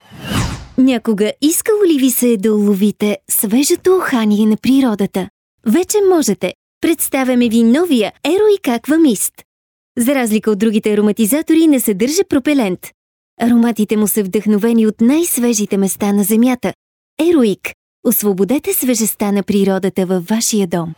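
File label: Bulgarian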